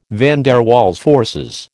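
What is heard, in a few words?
"van der Waals forces", American English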